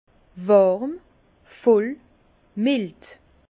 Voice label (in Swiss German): "warm", Bas Rhin; vin; moelleux